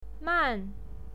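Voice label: "man" fourth tone, pinyin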